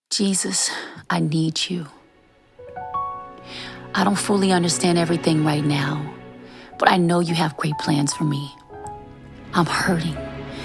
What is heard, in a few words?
Narration, Prayer